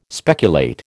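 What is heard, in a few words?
"speculate", American English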